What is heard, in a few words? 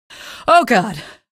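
citizen buddy killed, female; source engine; episodic; episode 1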